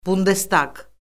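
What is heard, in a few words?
"bundestaq", Azerbaijani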